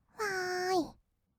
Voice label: "わーい", kawaii voice; ASMR; 色々なワンショット

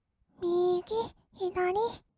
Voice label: "みーぎ ひだり", kawaii voice; 1200 kawaii voice!!; 色々なワンショット

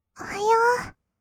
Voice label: "おはよう", kawaii voice; ASMR; 挨拶; 1200 kawaii voice!!

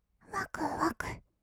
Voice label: "わくわく", kawaii voice; 1200 kawaii voice!!; 色々なワンショット

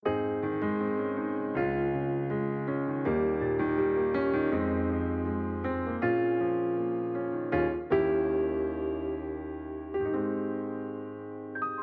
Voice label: ラジオ, バイノーラル

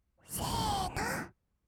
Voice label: せーの, kawaii voice; 音ゲー

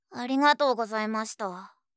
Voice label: 「有難うございました」(哀), あいさつ系, ASMR

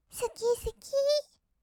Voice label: "すきすきー", kawaii voice; ASMR; 色々なワンショット

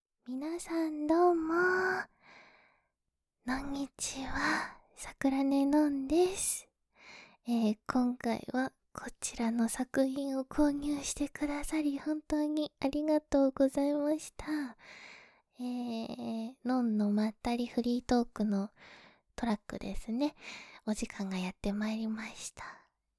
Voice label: まったりフリートーク

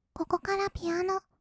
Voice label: ここからピアノ, kawaii voice; ASMR; 色々なワンショット

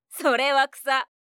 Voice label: それは草, 相づち・反応系【ポジティブ】; ASMR

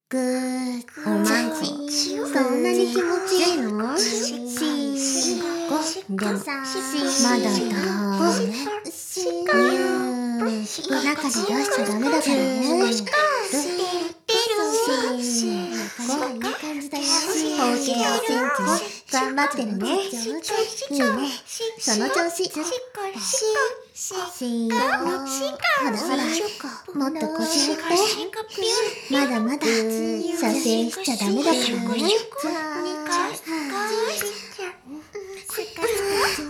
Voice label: しこしこ カウントダウン Ｈなセリフ Mix, ASMR; 魔法少女マスカリマッスル

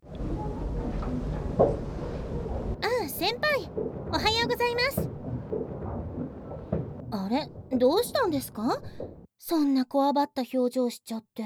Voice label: 後日談, ASMR

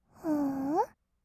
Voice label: "ほー？", kawaii voice; 1200 kawaii voice!!; 色々なワンショット

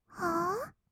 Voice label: はー？, kawaii voice; ASMR; 1200 kawaii voice!!; 音ゲー

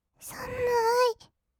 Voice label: さむーい, kawaii voice; ASMR; 色々なワンショット

